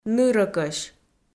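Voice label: "нырӧкӧсь", Komi, female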